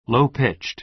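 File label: "low-pitched", American English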